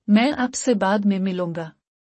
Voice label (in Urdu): I will meet you later - urdu; Time and Routine